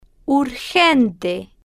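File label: "urgente", Spanish, female, slow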